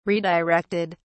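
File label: "redirected", English, British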